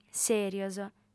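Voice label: "sèrios", Sardinian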